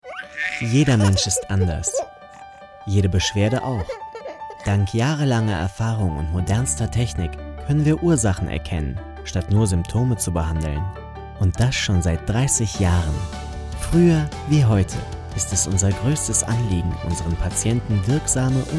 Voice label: Imagefilm; GesundheitPraxis

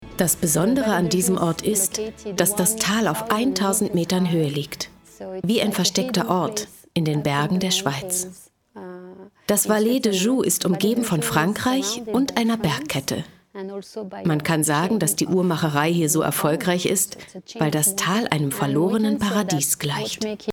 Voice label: mittlere stimmlage; meisterwerke schweizer uhrenmacherkunst

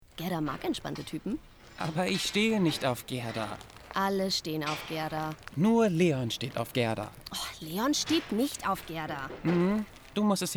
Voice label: Hörspiel; Super-Jenny

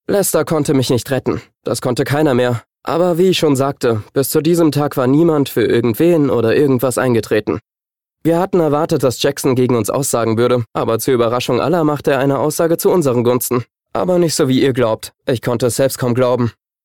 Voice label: Erzählung

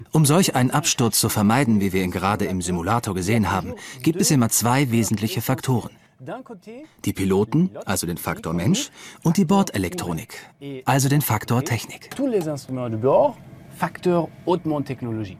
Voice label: doku; x-enius cockpit der zukunft mensch oder maschine

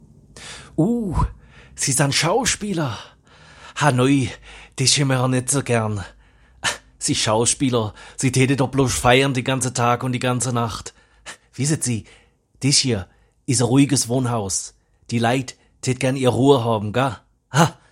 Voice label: Schwaebisch; LOFT VOICES

